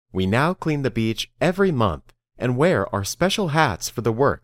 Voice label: Dictation